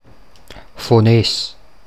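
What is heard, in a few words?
Fonés dialect; Fonés Mundart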